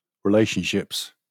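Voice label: "relationships", British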